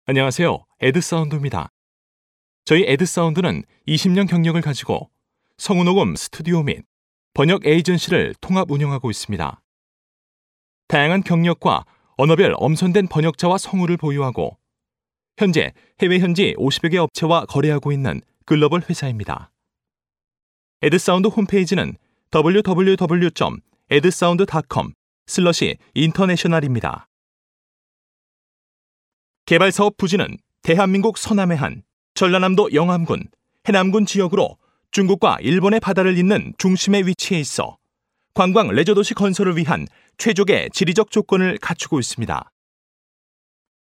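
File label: Korean male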